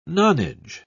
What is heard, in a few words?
"nonage", en uk